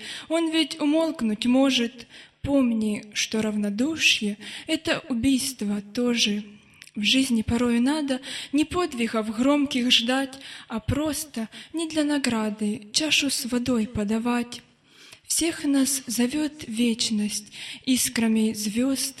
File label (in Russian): Любить брата (Стих)